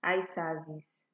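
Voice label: "eye service", Nigerian Pidgin, female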